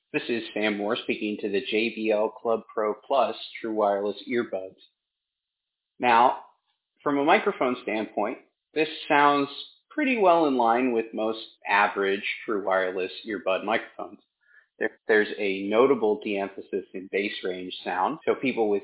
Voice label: mic sample; JBL Club Pro Plus